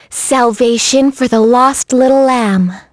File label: Vox Skill, en; kingsraid